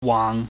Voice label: "waang", Chinese

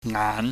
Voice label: "ngaan", pingyam

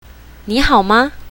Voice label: "ni hao ma", mandarin

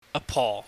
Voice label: "apoh", Cantonese

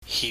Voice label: "hip", chinese

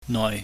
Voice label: "noi", Chinese

